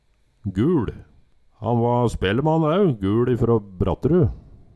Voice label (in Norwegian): "GuL", Numedalsmål; Gul, Guul